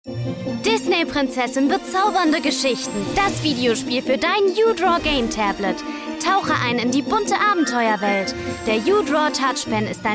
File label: TV Spot; Nintendo; Disney Prinzessin